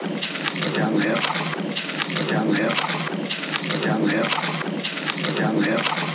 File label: male voice loop; Delphi